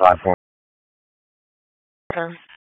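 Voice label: EMS DISP, radio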